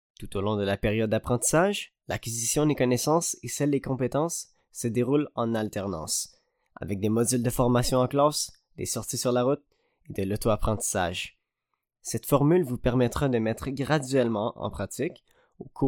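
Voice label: French Canada, male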